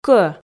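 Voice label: "кӧ", Komi, female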